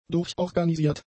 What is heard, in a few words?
"durchorganisiert", german; adjectives